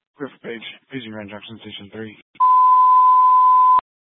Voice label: Fire Department Dispatch, scanner